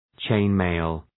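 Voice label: "chain mail", English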